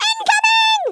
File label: "Incoming", American; Speech; Worms Armageddon